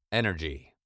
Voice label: "energy" British

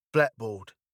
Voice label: "blackboard", British

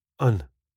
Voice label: "un", スコットランド英語; 1つ

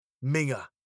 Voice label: "minger" British

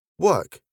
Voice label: "work" British

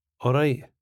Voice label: "alright", スコットランド英語; 大丈夫; かなり巻きがちな”R”の音; alright（オゥルゥRrrrァイ）; 人によって「Rrrrrr」のような発音がなされます。

